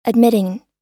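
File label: "admitting", American English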